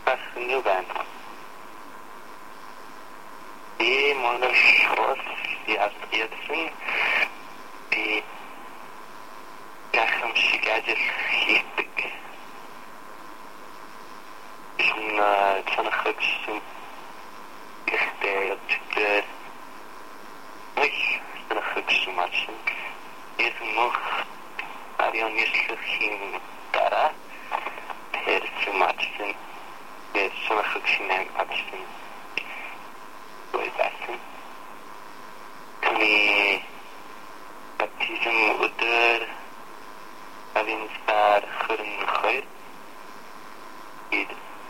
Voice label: Speaking Mongolian; Mongolia Ulaanbaatar Mission